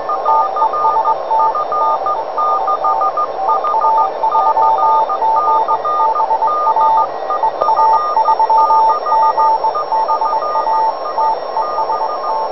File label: qso